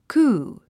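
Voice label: "coup", English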